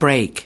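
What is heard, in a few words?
"brake", US English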